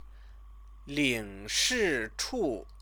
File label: "lingshichu", Chinese; Chinese lessons